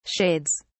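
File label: "shades", British English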